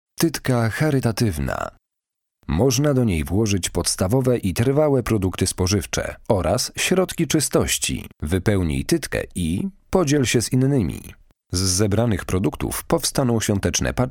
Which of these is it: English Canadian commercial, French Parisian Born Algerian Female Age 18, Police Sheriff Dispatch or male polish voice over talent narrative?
male polish voice over talent narrative